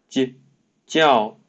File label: "叫", Mandarin Chinese pronunciation; j